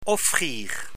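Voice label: "offrir", French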